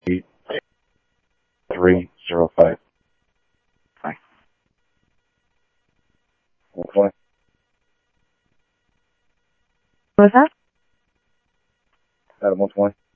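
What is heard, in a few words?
Sheriff East County, scanner audio trunk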